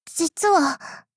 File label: sad